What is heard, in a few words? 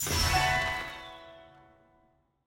convo reaction positive; tft lny2022